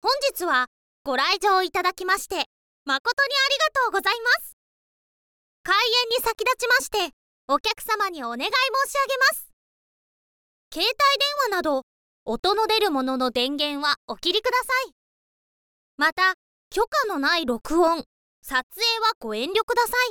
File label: honzitsu ha goraizyou, info girl voice